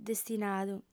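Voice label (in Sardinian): "destinadu", female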